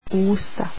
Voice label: mouth, croatian